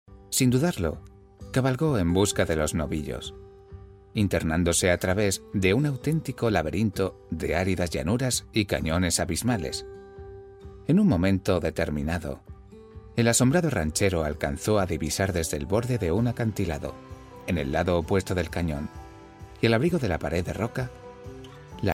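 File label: Spanish, male, narration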